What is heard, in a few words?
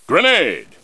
male grenade; combatarms2